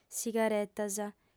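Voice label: "sigaretas", lingua sarda, female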